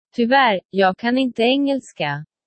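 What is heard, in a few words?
"tyvarr", Swedish; szwedzki podstawowe zwroty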